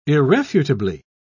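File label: "irrefutably", British English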